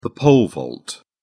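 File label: "pole vault", British English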